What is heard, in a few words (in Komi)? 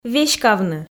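"веськавны", Komi, female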